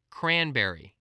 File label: "cranberry", voice spelling